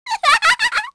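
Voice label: Vox Happy, korean; Hanus; kingsraid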